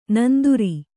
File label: "ನಂದುರಿ", Kannada